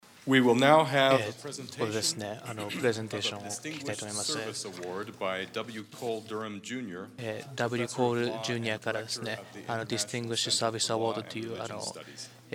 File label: Japanese; iclrs symposium 2009